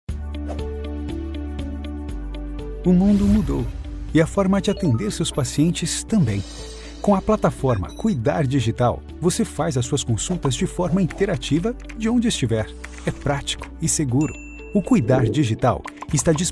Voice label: portuguese brazilian medical narration